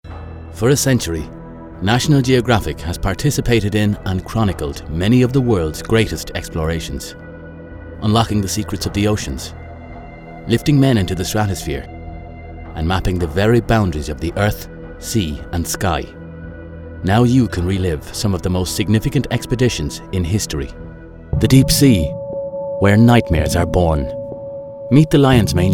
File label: Narration